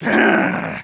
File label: negative vocalization, de; Engineer